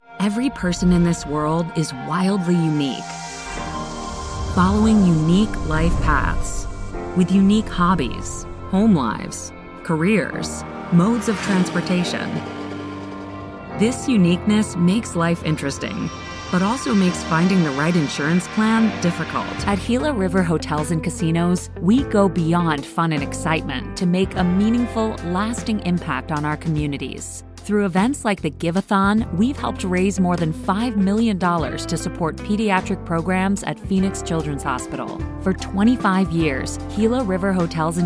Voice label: Corporate Narration